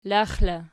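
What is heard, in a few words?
"lax" locative, Archi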